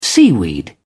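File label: "seaweed", British English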